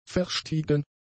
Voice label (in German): "verstiegen", German; adjectives